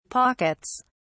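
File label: "pockets", British English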